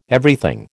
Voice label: "Everything", American

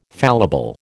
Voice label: "fallible", American

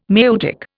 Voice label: "maieutic", Indian

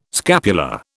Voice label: "scapular", British

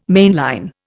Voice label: "mainline", Indian

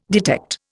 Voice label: "detect", Indian

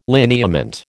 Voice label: "lineament", American

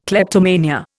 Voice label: "kleptomania", Indian

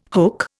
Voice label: "hook", Indian

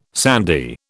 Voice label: "sandy", British

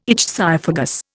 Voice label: "ichthyophagous", Indian

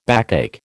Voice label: "backache", American

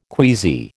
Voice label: "queasy", American

